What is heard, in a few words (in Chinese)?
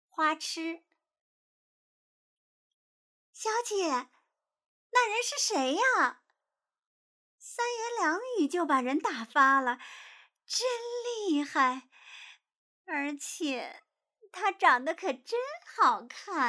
花痴